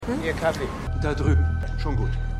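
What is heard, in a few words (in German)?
Kaffeeverkäufer Polizist, Stimmproben; LO SVU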